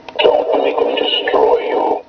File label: voice changer; ROTS